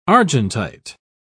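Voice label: "argentite", British English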